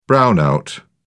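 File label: "brownout", British English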